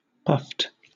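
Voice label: "puffed", British English; pronunciations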